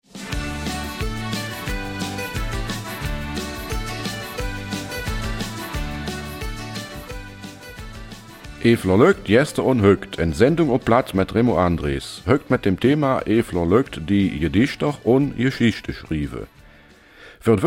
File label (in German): Eifeler Mundart; Der Dorfbote der Kreativen Werkstatt